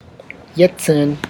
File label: "cricket", tlacochahuaya